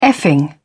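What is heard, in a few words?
"effing", British English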